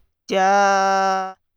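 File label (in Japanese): "じゃ", Japanese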